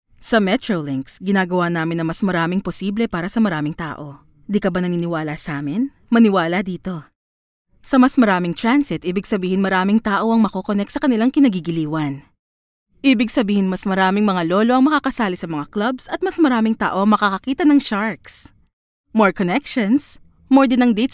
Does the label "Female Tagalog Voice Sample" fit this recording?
Yes